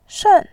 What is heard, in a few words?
"shen4", voice